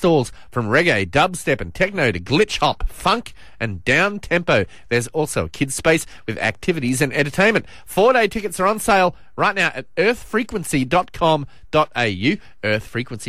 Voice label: Morning Live Read; 97.3FM; Earth Frequency